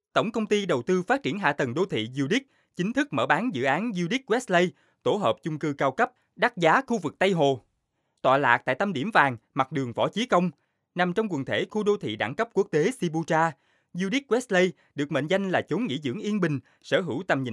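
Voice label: Vietnamese South, male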